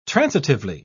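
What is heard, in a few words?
"transitively", British English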